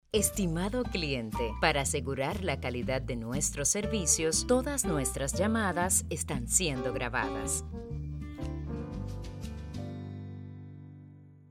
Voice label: Call Center Informacion; Dominos